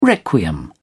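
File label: "requiem", British English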